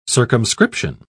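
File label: "circumscription", British English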